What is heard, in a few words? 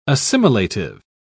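"assimilative", British English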